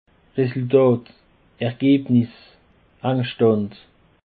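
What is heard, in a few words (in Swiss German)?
"resultatt", Alsatian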